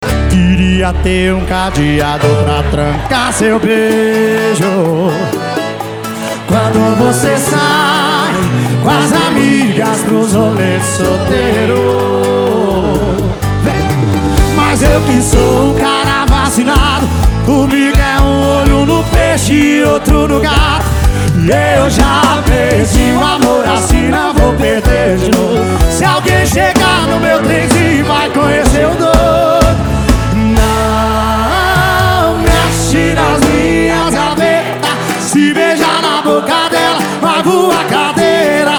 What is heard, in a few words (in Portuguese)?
locutor a voz magnifica